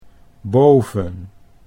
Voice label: "boven", Dutch

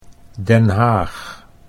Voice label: "Den Haag", Dutch

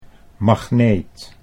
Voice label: "magneet", Dutch

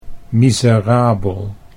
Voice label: "miserabel", Dutch

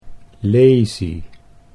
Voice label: "laesie", Dutch

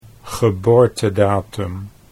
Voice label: "geboortedatum", Dutch

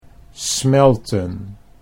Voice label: "smelten", Dutch

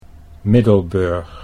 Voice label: "Middelburg", Dutch

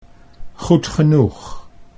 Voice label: "goed genoeg", Dutch; 'good enough'

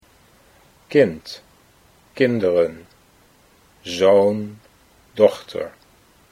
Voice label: "kind kinderen", Dutch

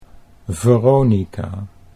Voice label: "Veronica" Dutch

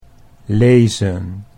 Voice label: "lezen", Dutch

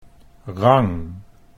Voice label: "rang", Dutch

